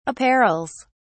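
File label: "apparels", British English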